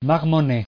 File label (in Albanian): "marmonner", frengjisht